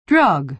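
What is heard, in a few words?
"drug", American English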